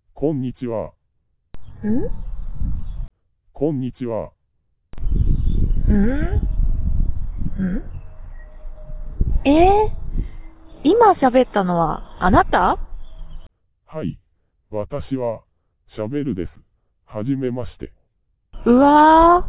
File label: conversation